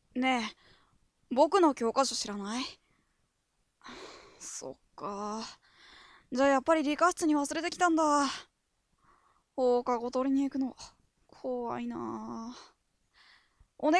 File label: boy